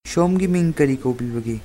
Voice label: name, manipuri